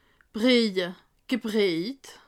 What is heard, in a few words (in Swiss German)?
"briehje", alsace